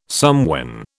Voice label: "somewhen", British

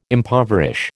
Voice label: "impoverish", American

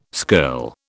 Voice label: "skirl", British

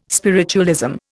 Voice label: "spiritualism", Indian

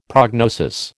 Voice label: "prognosis", American

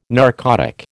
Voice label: "narcotic", American

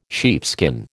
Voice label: "sheepskin", American

